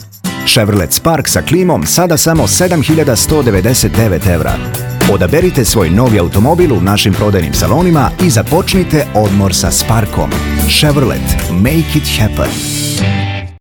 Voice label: reklama spot voiceover muzika; audio produkcija; spot video voiceover muzika

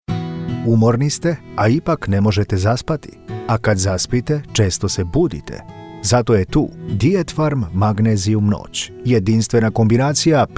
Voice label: voice over reklame glas; instagram video apoteka ordinacija lekovi

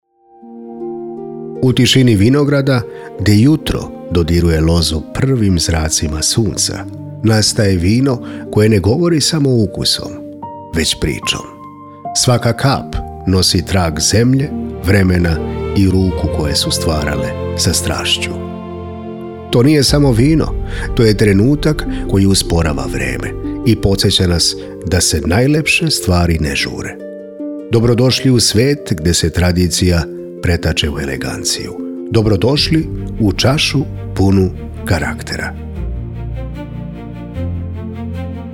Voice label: reklama za vinariju voice over; vina u srbiji

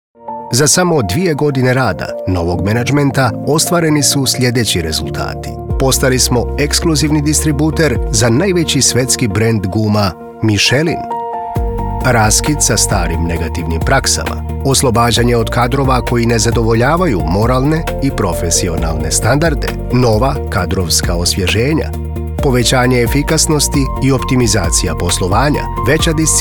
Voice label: crnogorski voice over izgovor glas; audio snimanje; voice over ijekavica; bosanski crnogorski hrvatski

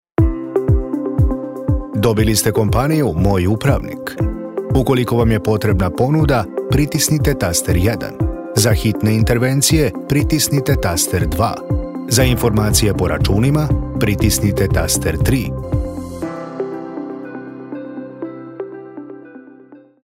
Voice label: primer glasovna poruka muzika za telefon centrala

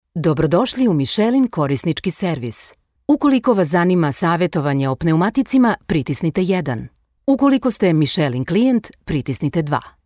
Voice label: ZENSKI GLAS IVR VOICE SAMPLE

